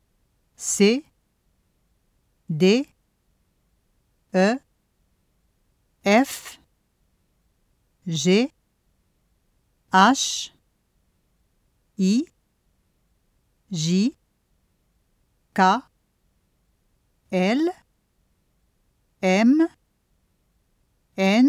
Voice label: Prononciation - Alphabet

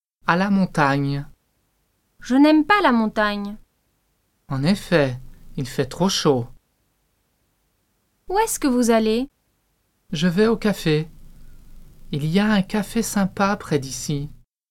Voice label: Dialogue a la montagne

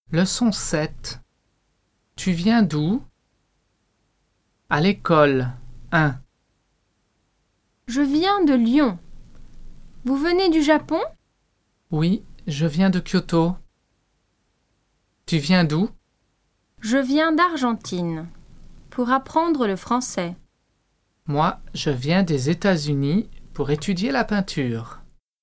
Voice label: Dialogue a l'ecole

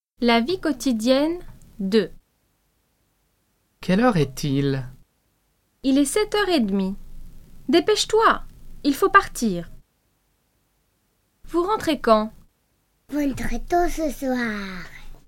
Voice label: Dialogue la vie quotidienne

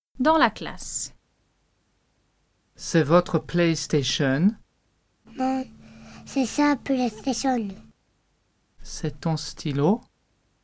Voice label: Dialogue dans la classe

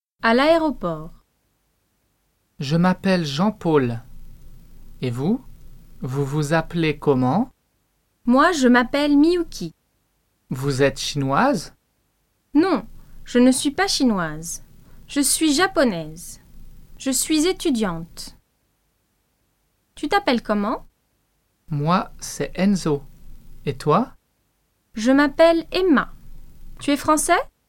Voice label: Dialogue a l'aeroport

